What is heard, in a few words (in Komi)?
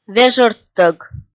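"вежӧрттӧг", Komi, female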